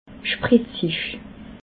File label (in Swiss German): "spritzig", alsace